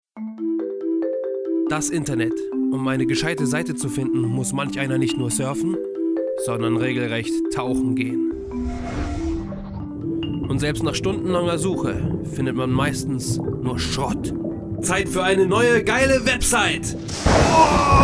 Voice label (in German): Sprechprobe Werbung